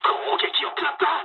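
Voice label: aircraft damaged mood low, speech japanese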